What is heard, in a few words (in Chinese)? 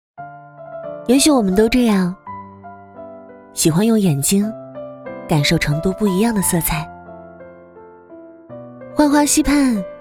女 独白 成都, 文旅配音